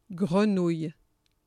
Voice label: frog, fr; FoodChain